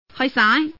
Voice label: woman; mahjong paohuzi; youxian